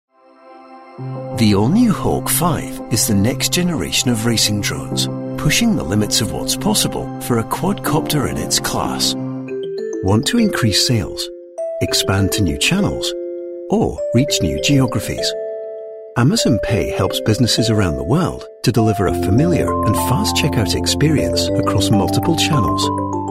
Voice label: explainers